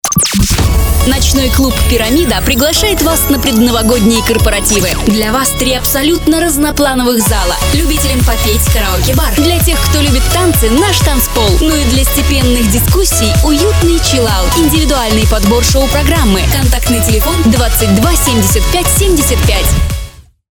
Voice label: Рекламный ролик

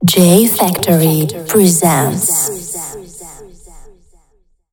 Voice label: Войс дроп - Женский голос

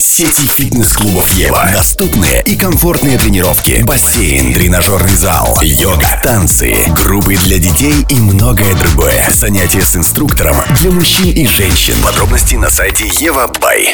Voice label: РЕКЛАМНЫЙ РОЛИК Фитнес-Клубы; Фитнес-Клубы Ева

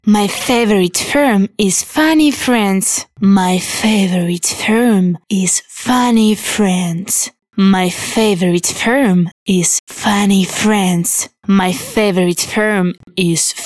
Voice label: НАЧИТКА; Favorit Firm

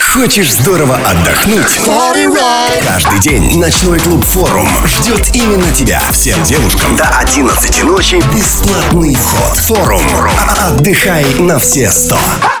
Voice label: РЕКЛАМНЫЙ РОЛИК; Форум - Бесплатный Вход Девушкам

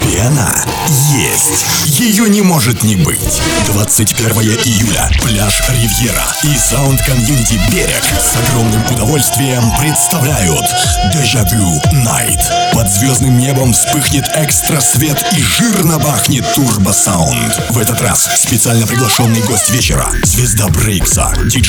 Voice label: АУДИО АФИША; Дежавю Найт

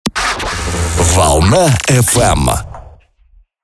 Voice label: джинглы для DJ радио шоу

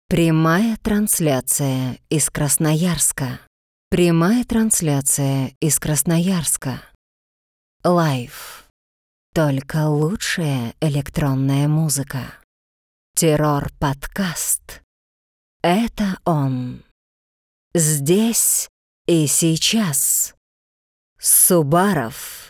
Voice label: Голос до обработки

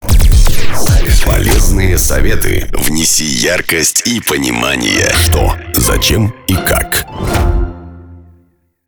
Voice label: ДЖИНГЛ

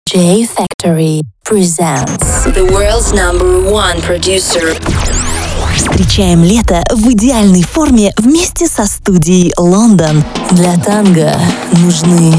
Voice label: Женский голос (RU - EN)

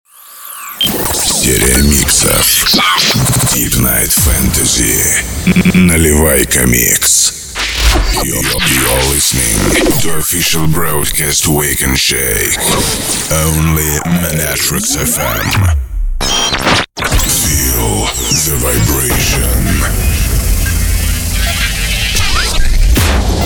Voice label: Мужской голос

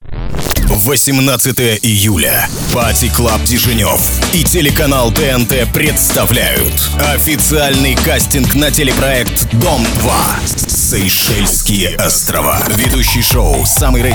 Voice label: РЕКЛАМНЫЙ РОЛИК; Дежнев - Дом 2

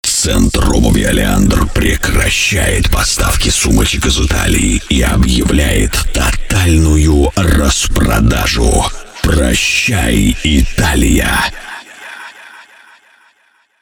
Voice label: Голос после обработки

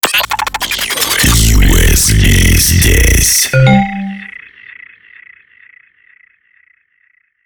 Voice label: DJ ДЖИНГЛ

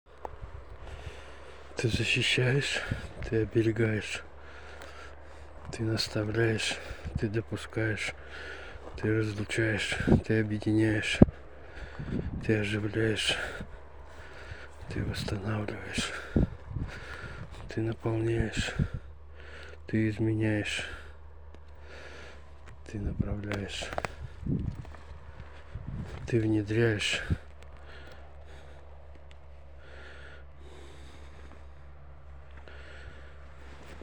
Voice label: инфо акапелла

